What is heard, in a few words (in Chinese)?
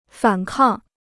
"反抗", Chinese